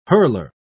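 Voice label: "hurler", English, British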